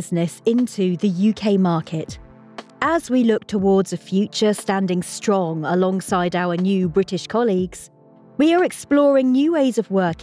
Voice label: Corporate